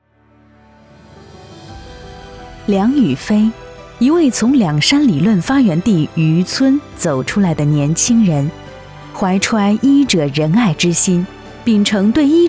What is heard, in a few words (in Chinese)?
国语女-人物专题